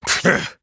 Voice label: Male NightElf Idle